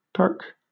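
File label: "perk", British English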